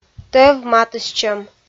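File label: "тӧв матыстчӧм", Komi, female; audio dict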